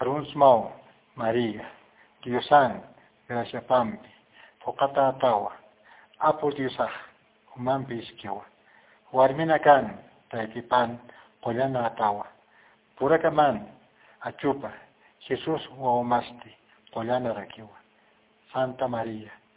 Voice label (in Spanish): ave maria aymara pausado